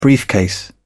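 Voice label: "briefcase", English, British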